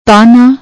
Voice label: "taahna", Punjabi; ਤਾਹਨਾ